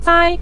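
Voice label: "zai", Mandarin Chinese syllable, neutral tone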